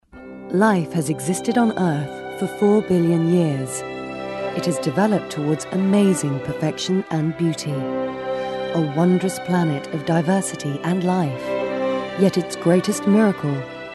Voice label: narration